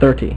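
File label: "thirty", male; MacCribbage; InterStellar MacCribbage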